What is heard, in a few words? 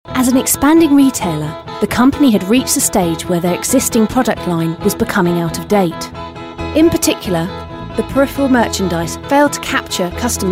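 British English, female, informative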